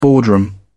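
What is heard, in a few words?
"boardroom", British English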